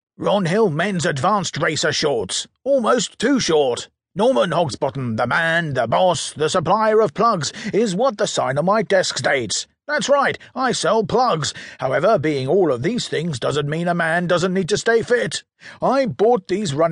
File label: audiobook narrated